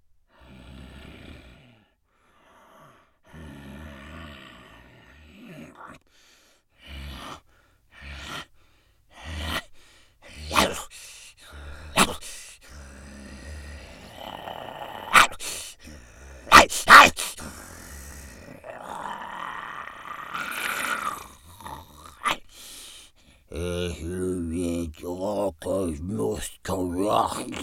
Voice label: Creature